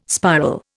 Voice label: "spiral", Indian

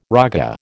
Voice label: "raga", American

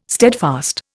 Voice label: "steadfast", Indian

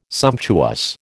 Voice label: "sumptuous", American

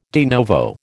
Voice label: "de novo", American

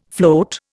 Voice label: "float", Indian

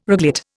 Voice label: "reglet", Indian

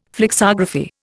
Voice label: "flexography", Indian

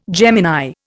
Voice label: "Gemini", Indian

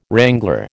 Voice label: "wrangler", American

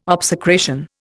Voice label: "obsecration", Indian

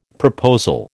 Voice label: "proposal", American

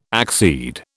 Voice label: "accede", British

